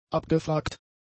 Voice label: "abgefragt", German; adjectives

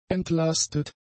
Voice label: "entlastet", German; adjectives

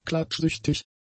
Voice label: "klatschsüchtig", German; adjectives

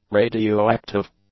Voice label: "radioactive", English; adjectives

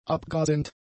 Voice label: "abgrasend", German; adjectives

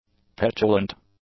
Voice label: "petulant", english; adjectives

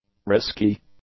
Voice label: "risky", English; adjectives

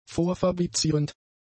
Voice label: "vorfabrizierend", German; adjectives

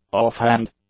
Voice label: "offhand", english; adjectives

